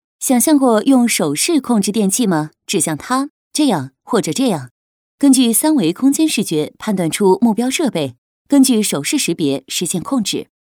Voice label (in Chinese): 女 专题 手势控制课件